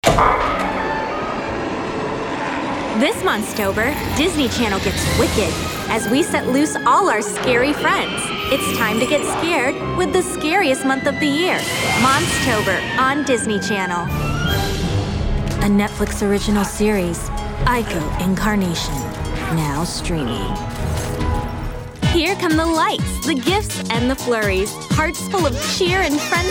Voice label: Promo